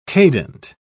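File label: "cadent", British English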